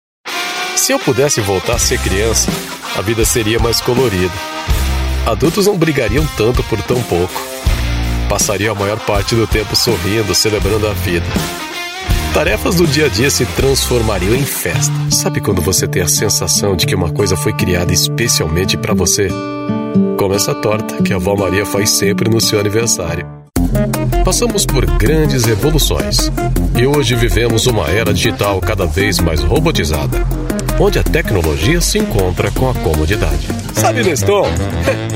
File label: Brazilian Portuguese Samples Brazilian